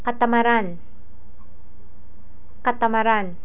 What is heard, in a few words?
"katamaran", Tagalog